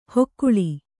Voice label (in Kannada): "ಹೊಕ್ಕುಳಿ", Kannada